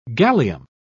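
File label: "gallium", British English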